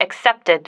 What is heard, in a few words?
"accepted", female